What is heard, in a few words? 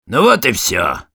positive vocalization, ru; Sniper